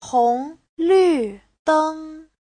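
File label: "hónglǜdēng", Mandarin Chinese; 红绿灯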